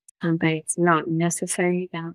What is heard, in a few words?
Voice Acting, es